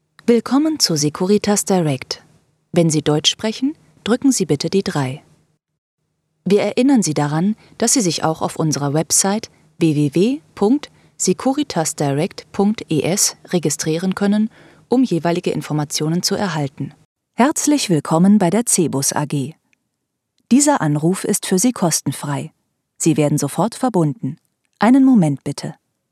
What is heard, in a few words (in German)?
Telansage mix